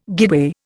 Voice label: "guideway", Indian